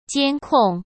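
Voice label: "监控", Mandarin Chinese; chinese-flashcards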